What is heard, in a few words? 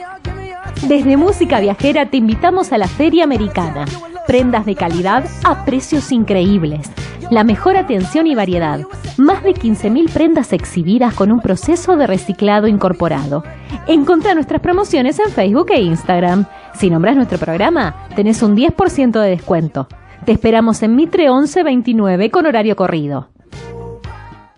Spanish